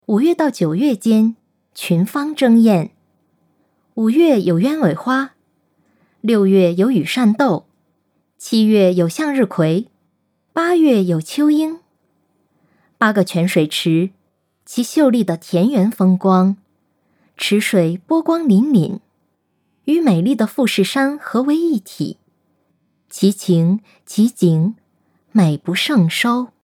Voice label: chinese mandarin commercial

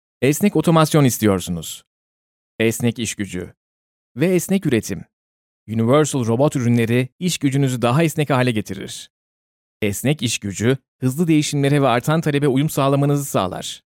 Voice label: turkish promos; turkish voice over talent

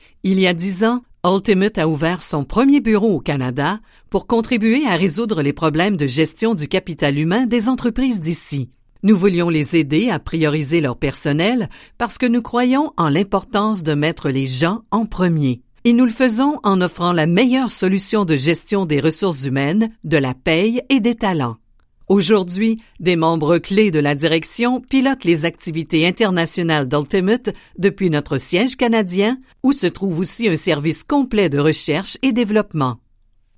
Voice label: french canadian corporate videos

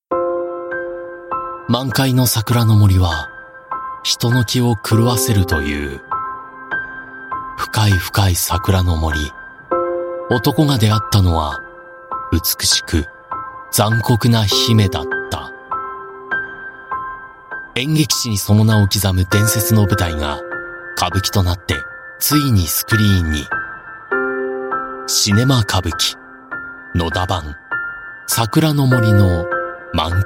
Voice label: japanese movie trailers

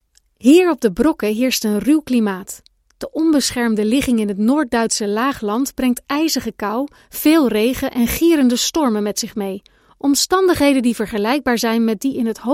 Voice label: dutch narration

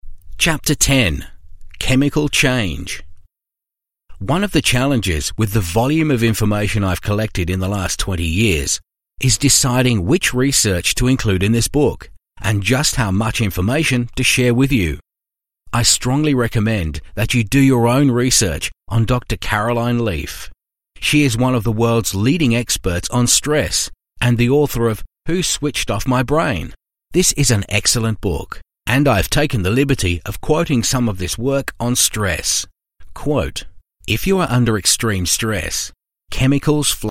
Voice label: english australian audiobooks

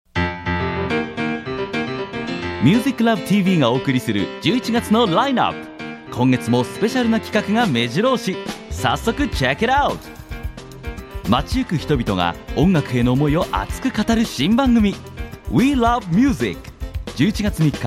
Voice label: japanese tv shows; japanese voice over talent

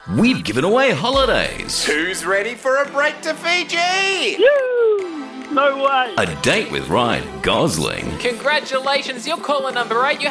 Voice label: english australian promos

